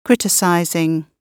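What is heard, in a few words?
"criticizing", British English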